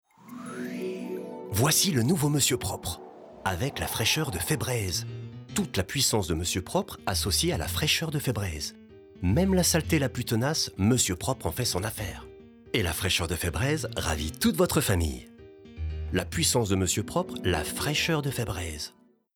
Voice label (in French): pub tv; Pub TV / Radio / Corporate / Brand Content